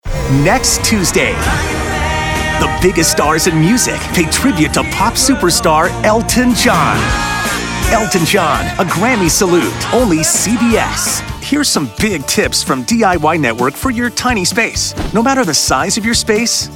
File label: promo male